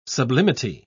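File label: "sublimity", British English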